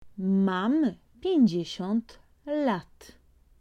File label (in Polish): "mam 50 lat", polaco